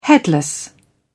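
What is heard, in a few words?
"headless", British English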